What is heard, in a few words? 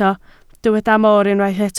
"mor", Welsh